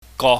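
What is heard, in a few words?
"go", Cantonese, mid level tone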